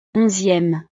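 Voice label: "onzième", French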